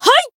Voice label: limitbreak, jp